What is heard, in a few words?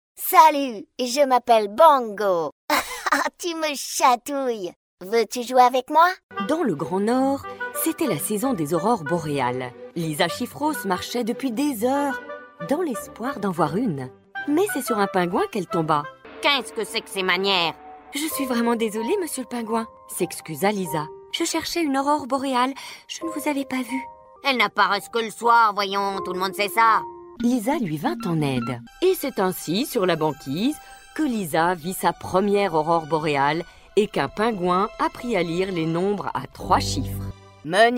KIDS NARRATION COMEDIES CARTOON